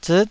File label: "ziit9", taiwanese